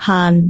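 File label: "haan4", Cantonese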